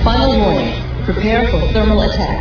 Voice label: announcer thermal

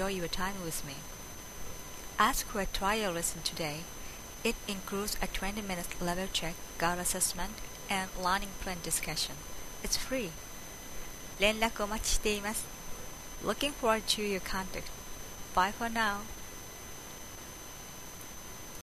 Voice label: teacher voice

